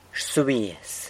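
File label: "rsubiaz", San Lucas Quiavini Zapotec; dries (something)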